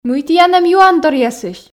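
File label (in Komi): мый тіян эм юанторъясысь, Komi, female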